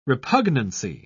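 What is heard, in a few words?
"repugnancy", British English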